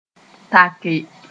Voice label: "take", moore

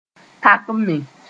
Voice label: "takme", moore

